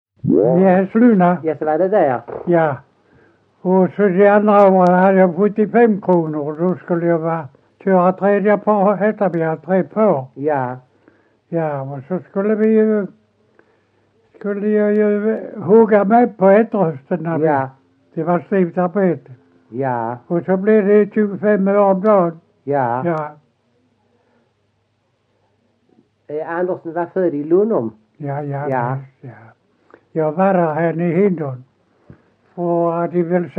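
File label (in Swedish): Ekeby Skåne, dialekter, svenska sagor; Folkminnen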